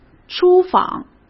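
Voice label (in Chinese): "出访", Mandarin Chinese; hsk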